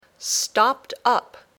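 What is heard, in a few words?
"stopped up", English words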